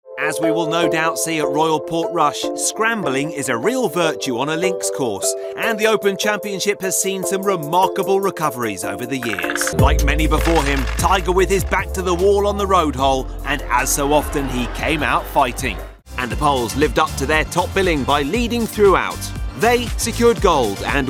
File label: Sports Voiceover